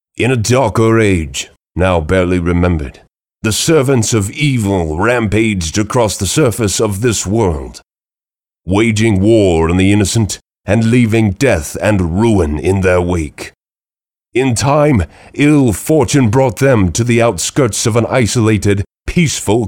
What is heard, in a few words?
Narration